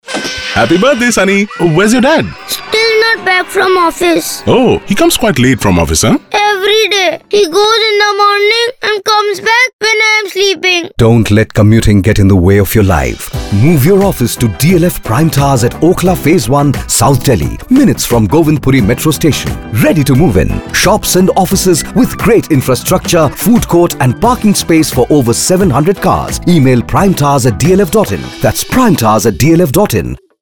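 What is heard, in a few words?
child English; dlf ad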